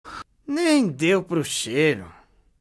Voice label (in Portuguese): vozes antigas